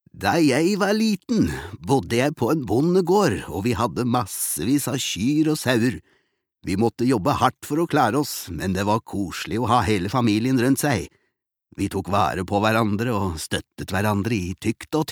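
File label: no dub old man, Norwegian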